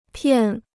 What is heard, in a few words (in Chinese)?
"骗", Chinese